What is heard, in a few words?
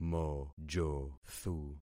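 "mojothu", concatenated syllables; Affixing experiment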